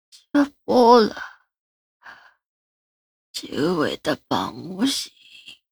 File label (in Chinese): 老人喂食